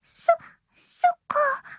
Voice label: AGREE JP; bonee

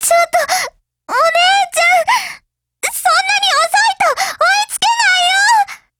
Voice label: DIALOGUE JP; Carcano1938

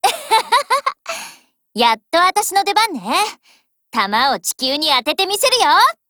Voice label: JP; AK47

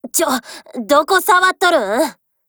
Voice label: DIALOGUE JP; AR

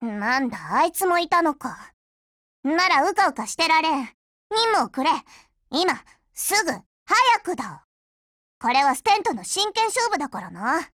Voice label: DIALOGUE JP; Sterling

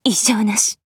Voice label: Voice JP; OTs-14

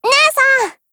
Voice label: PHRASE JP; PPS43

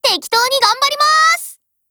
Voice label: PHRASE JP

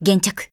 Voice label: Voice, JP; Alva

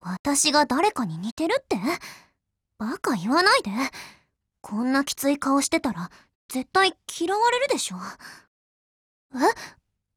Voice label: DIALOGUE JP

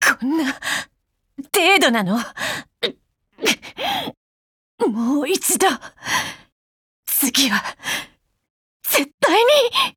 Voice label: Fail JP; Krolik

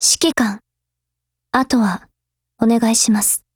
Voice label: GAIN JP; M4A1Mod

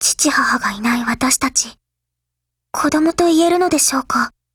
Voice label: DIALOGUE, JP; Thunder50

